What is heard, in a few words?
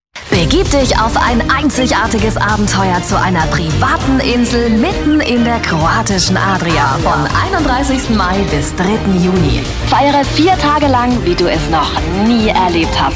Radio Spot